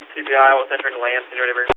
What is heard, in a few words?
Radio; Lansing